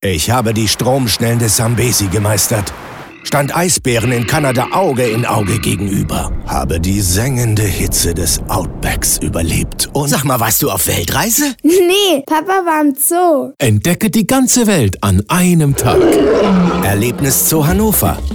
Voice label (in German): Funkspot; Zoo-Hannover